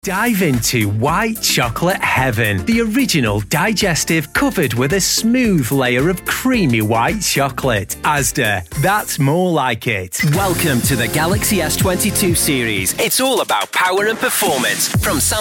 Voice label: commercial